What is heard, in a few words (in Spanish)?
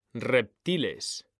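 "REPTILES", Spanish; mekanta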